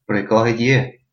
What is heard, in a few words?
where from, albanian gheg